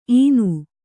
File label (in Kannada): "ಇನ", Kannada